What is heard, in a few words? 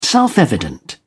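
"self-evident", British English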